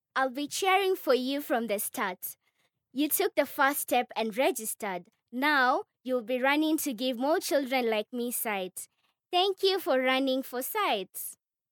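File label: English East African, female, child character; english in foreign accent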